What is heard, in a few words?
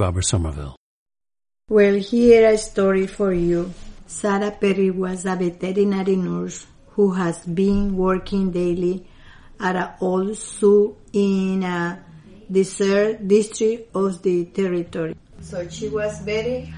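nicaragua, International Dialects of English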